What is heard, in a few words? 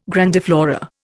"grandiflora", Indian